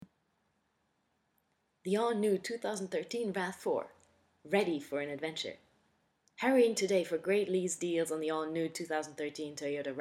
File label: english, voice demo, actress